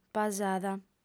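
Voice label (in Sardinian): "pasada", female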